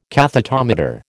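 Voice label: "cathetometer", American English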